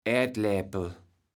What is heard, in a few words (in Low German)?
"Ätläpel", kaevels platt